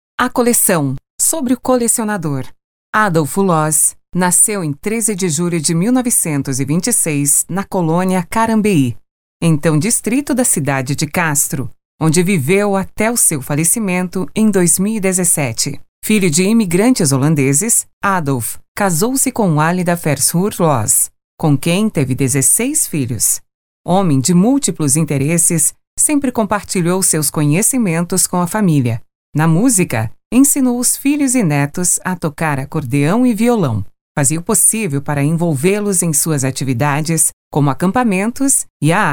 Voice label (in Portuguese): audio guia voz feminina; exposicao casa das borboletas; painel 1 sobre o colecionador